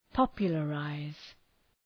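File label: "popularize", English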